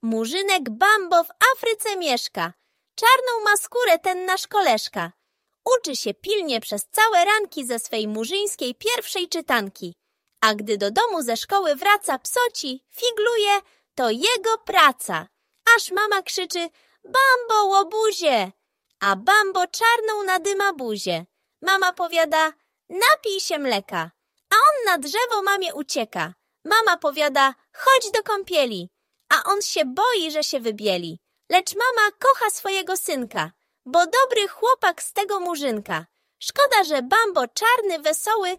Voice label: Audiobook; Murzynek Bambo; Julian Tuwim